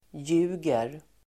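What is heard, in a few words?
"ljuger", Swedish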